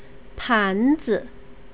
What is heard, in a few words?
"panzi", Chinese pronunciation